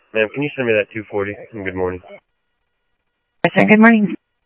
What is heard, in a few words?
scanner audio trunk